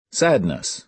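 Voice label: "sadness", American English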